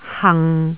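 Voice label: "hang6", Jyutping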